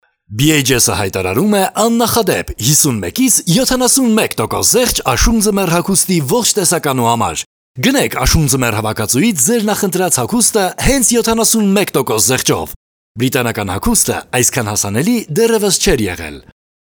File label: armenian male